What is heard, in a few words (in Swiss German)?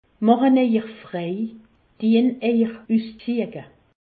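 "frei", Alsatian; medecine; déshabillez vous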